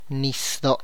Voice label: "sea ocean", tlacochahuaya

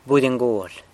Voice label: "budy ngual", San Lucas Quiavini; male turkey

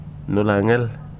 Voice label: "swim", Wahgi; lae unitech

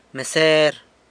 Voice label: "meser", San Lucas Quiavini; waiter

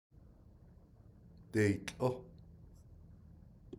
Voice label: weave it 1st person plural, Navajo

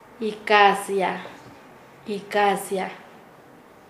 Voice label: "descansar", Nasa Yuwe; paez

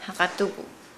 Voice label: "pay", Nukuoro

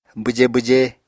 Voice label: "bwjé bwjé", Apatani

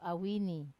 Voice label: "awini", mother, Wamesa

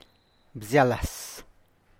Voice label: small local beans, Zapotec; bzyalas; (Spanish) frijoles criollos (pequeños)

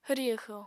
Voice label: "hwri-hwxo", Apatani

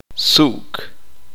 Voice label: uspanteko; limpiar

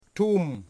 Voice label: "sun", Wamut

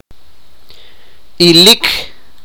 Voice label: sipakapense; watch

